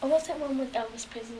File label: English, New Zealand School Children